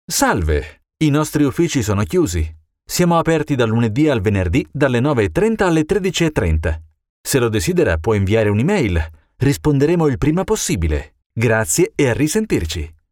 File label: IVR Italian